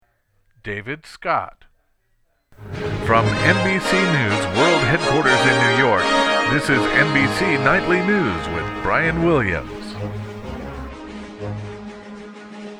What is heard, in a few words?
Nightly News Open, Auditions; NBC